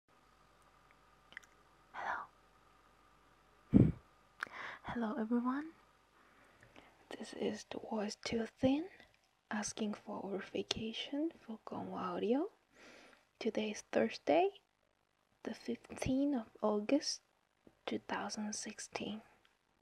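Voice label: Female Verification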